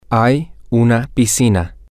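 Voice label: there is a pool, spanish, male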